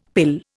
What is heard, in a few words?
"pill", Indian; Dictionary